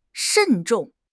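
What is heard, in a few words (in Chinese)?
"慎重", Chinese; HSK6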